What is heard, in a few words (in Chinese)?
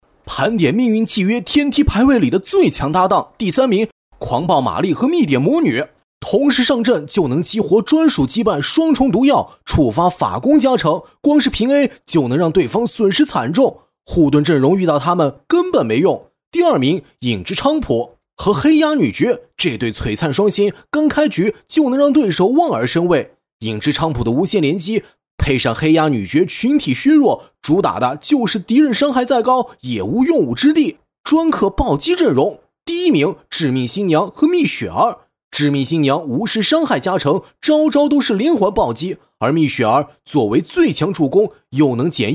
男 信息流; 盘点命运契约天梯